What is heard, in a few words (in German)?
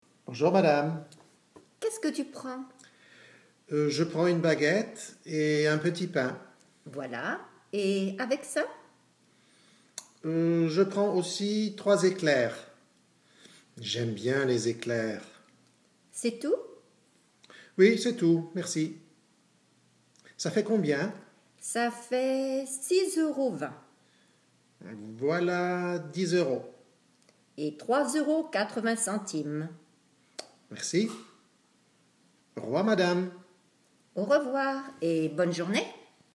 dialog einkaufsgespraech; franzoesisch